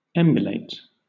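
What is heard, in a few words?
"emulate", British English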